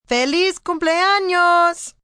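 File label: Birthday Spanish F, female, Spanish; Occasion, event; Holiday; Voice Box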